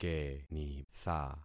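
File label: "kenitha", concatenated syllables; Affixing experiment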